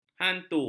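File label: "hantu", Malay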